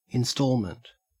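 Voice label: "instalment", Australian English; pronunciations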